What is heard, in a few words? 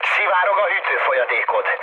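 Hungarian damaged water mood med, speech; War Thunder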